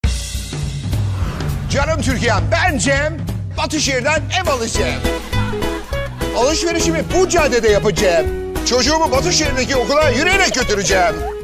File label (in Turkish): enerjik tempolu